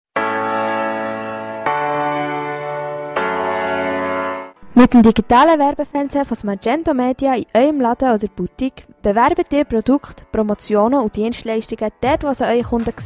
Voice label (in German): Werbejingle; Smargento; Bolligen